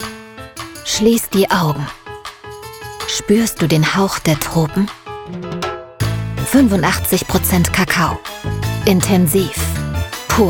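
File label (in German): Werbung Edel, Sinnlich, Commercial, German, Badisch, French accent; Germany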